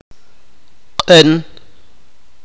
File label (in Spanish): "amarillo", sipakapense